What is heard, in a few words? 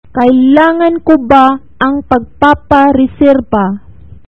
Filipino; Lingo